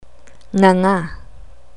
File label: "nganga", Tagalog